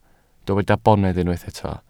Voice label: "bonedd", welsh